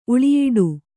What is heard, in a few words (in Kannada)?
"ಉಳಿಯಿಡು", kannada